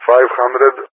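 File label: "500", English speech; Numbers; Allied Flight; DCS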